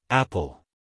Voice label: "apple", us male; 1000 hours

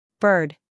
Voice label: "bird", English, US, female

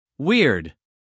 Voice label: "weird", us male; 1000 hours

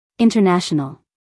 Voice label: "international", us female

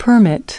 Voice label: "permit" noun, US English; everyone-can-use-english

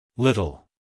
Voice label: "little" us male; everyone-can-use-english

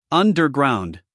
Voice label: "underground", us male

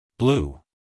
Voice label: "blue" us male

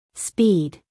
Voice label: "speed" us female; everyone-can-use-english

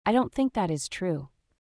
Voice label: sentence don't think, us

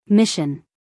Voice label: "mission", US female; 1000 hours

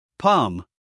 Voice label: "palm", US male

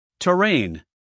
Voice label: "terrain", us male

